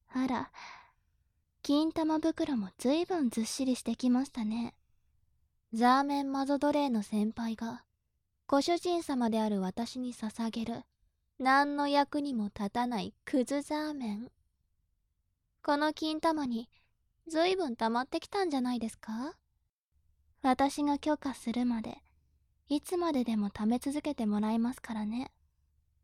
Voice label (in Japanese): 調教中音声, ASMR